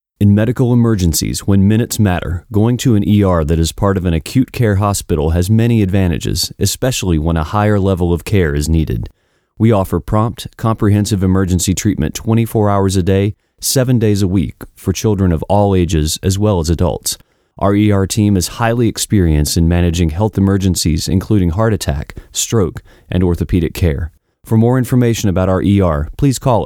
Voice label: Serious Urgent Voiceover Spot